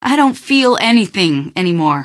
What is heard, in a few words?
question, female; Garry's Mod; hl2